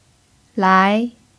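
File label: "lái", Mandarin Chinese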